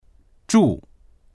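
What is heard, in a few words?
"zhu4", Mandarin Chinese; 住; 泊まる、住む; dictionary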